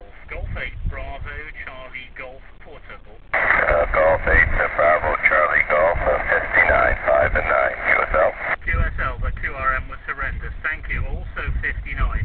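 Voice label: amateur radio contact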